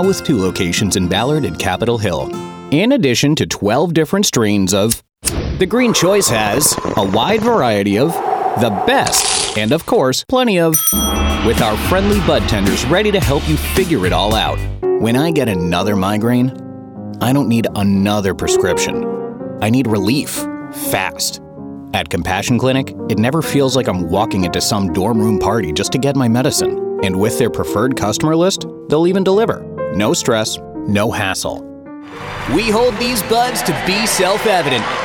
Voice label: Cannabis Commercial, voiceover